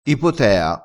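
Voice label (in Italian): "ipotea", crespinese dialettale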